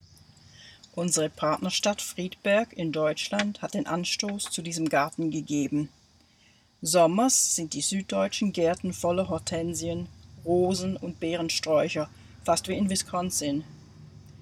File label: Germany Audio German; Friedberg